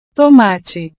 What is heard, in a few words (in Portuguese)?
"tomate", portugues